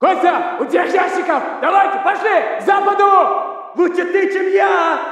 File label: walla rus mountain chatter